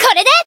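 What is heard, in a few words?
Voice attack, Japanese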